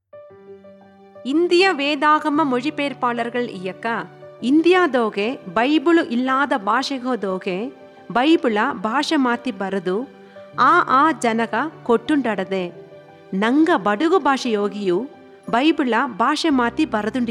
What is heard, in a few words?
BADAGA, Badaga Audio Bible; JOHN CH 00